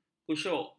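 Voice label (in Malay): "khusyuk", Malay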